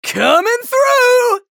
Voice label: chatter positive; anarki; frag